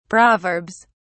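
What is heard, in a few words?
"proverbs", British English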